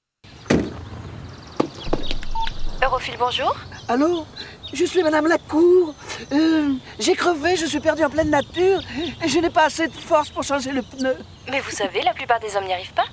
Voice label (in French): fraiche souriante